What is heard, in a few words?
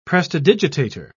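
"prestidigitator", British English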